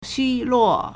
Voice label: "xu1luo4", Chinese